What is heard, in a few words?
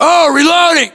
inform reloading, US